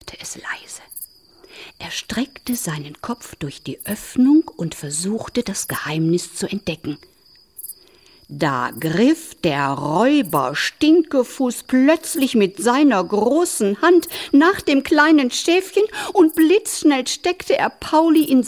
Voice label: Hoerspielszene

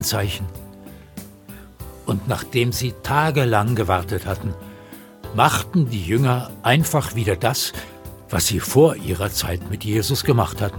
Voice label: Erzaehler

